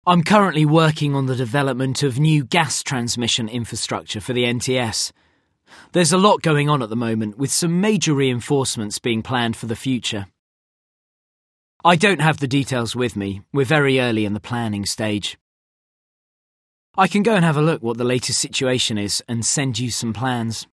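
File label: English, corporate, conversational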